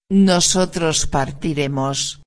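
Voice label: "partiremos", Spanish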